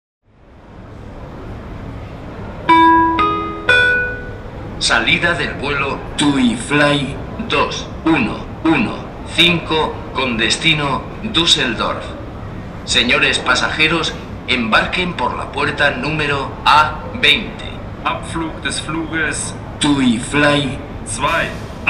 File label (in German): nach Duesseldorf, Flughafen Ansagen; TUI Fly